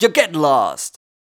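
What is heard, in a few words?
vocal male, Dance Vocals, spoken words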